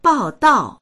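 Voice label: "bao4dao4", Chinese; bàodào; 报道; 報道、レポート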